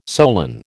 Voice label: "solan", American